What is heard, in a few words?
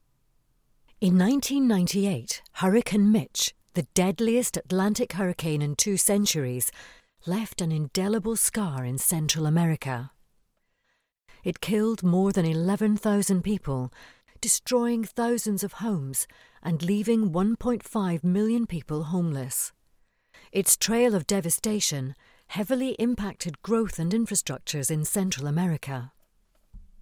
Scottish voice over Documentary